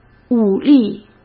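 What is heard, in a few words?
"武力", Mandarin Chinese; chinese flashcards; hsk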